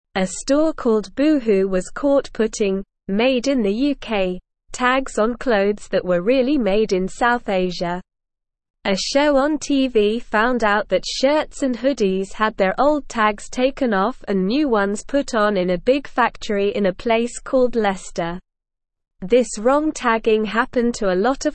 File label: English Lower Intermediate SLOW Reading; Boohoos Mistake Wrong Tags on Clothes; English Newsroom